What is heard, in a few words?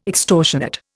"extortionate", Indian